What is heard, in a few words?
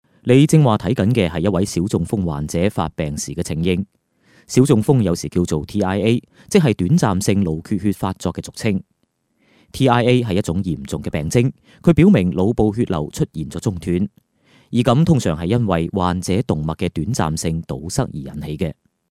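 chinese male, voice samples commercial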